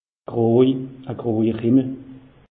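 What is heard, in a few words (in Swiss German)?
"grojer himmel", Alsatian; meteo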